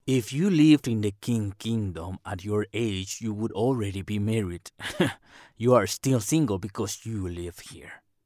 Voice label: VOICE RANGE